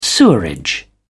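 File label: "sewerage", British English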